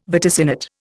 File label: "vaticinate", Indian